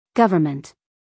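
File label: "government", American English, female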